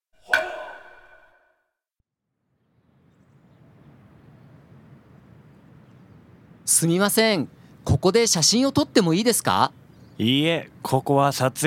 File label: dialog; JapanesePod101; Beginner Season 1